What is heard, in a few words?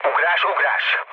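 Hungarian bailout mood low, speech; War Thunder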